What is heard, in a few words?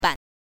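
"ban", Mandarin pinyin, neutral tone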